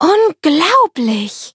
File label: victory, de; cookierun